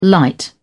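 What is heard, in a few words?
"light", English, British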